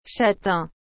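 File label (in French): "châtain", French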